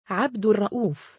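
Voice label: male "3abdurra2uuf", Arabic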